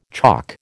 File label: "chock", American